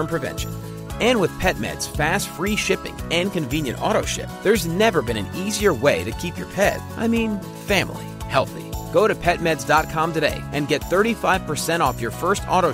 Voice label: Pet Meds Commercial